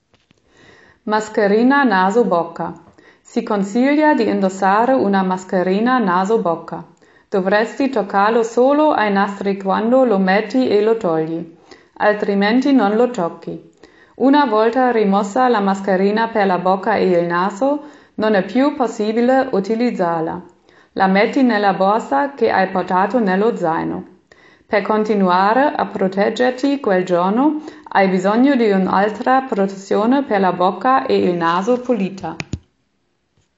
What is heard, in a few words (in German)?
Italienisch